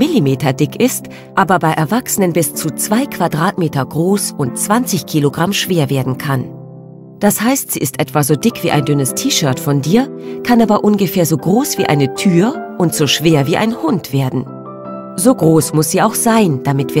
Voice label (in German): Erklaerfilm Kinder Haut; Bristol Myers; LOFT VOICES